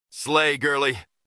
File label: slay girly